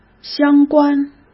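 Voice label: "相关", Mandarin Chinese; hsk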